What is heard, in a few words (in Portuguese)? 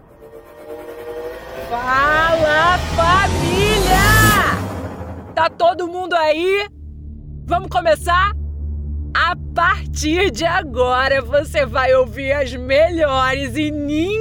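Português - Brasileiro, Abertura Dj - Evento funk, Voz Padrão - Grave, Feminino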